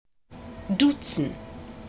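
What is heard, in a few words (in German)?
"duzen", German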